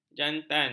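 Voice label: "jantan", Malay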